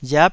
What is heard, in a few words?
"riap9", taiwanese